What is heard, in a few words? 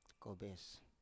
"kobes", Biak